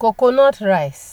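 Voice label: "coconu rice", Nigerian Pidgin, female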